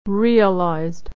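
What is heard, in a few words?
"realized", American English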